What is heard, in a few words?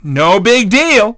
dj miss, DJ VOICE; Nintendo; July 2020 Gigaleak